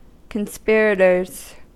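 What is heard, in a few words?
"conspirators", American English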